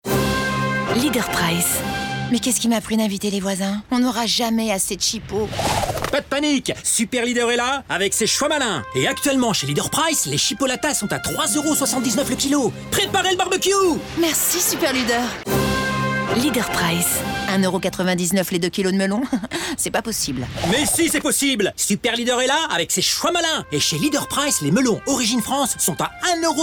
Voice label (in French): Pub Radio 2 comédies; Leader Price